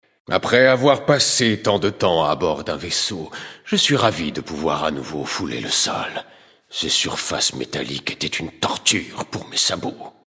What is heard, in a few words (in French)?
Male; Draenei Sancteforge; Blague; Sol